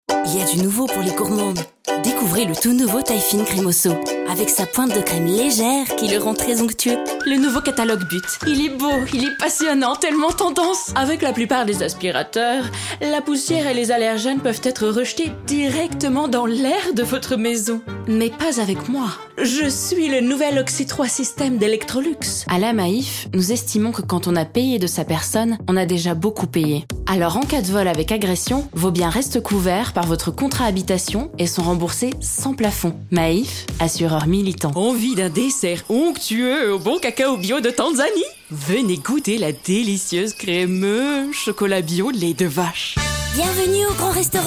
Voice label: french promos, voice actors; dubladora profissional francesa; Promoções